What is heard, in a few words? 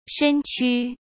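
"身躯", Mandarin Chinese; hsk; chinese-flashcards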